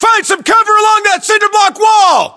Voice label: US order cover wall cinderblock, battlechatter, english